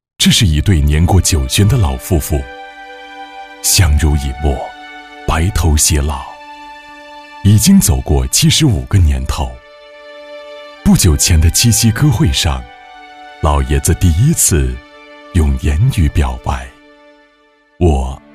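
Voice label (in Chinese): 男国大音-人物专题抒情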